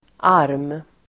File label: "arm", Swedish